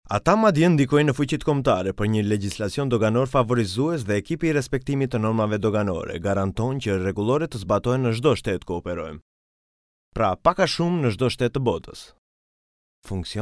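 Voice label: Albanian, male, narration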